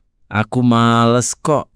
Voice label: indonesia, negative phrases

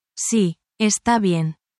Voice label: spanish, spanish phrases

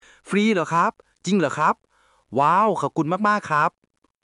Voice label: Thai, basic Thai phrases; a list of 100 basic thai phrases you can use while traveling; タイ旅行で必須の「伝える力」を磨く！カタカナ発音で覚える基本の100フレーズ

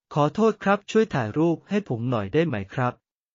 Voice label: Thai, basic thai phrases

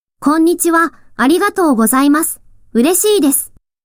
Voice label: anime, japanese; Japanese greetings with anime characters; slightly amusing Japanese language lesson

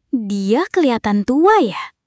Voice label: indonesian phrases

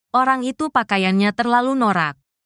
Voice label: indonesia, negative phrases

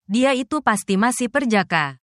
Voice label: indonesia, negative phrases

